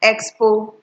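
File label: "expo", Nigerian Pidgin, female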